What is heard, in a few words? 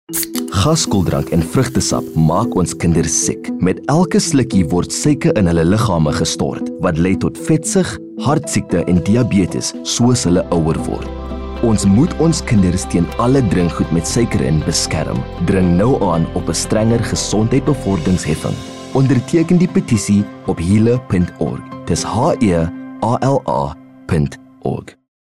radio commercial; public health messaging